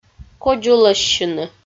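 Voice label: "кодзулӧссьыны" Komi, female; audio dict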